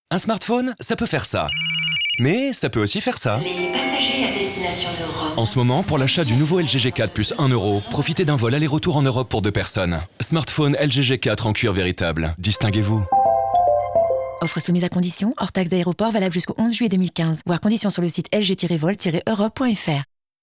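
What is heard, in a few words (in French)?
hotesse m. legale, voix off; LG G4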